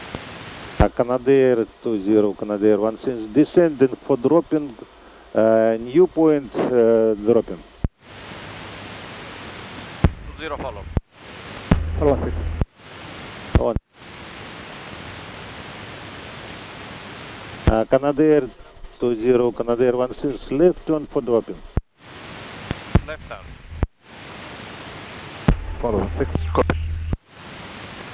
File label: italian